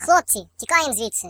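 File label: "Runaway", British; hedgewars; Default uk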